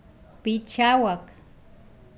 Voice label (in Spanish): Nawat palabras delgado; pipil